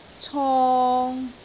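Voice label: "cong3", Cantonese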